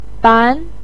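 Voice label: "ban" second tone, Mandarin Chinese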